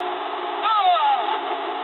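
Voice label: damaged pilot mood high, speech English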